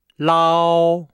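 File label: "lao", Chinese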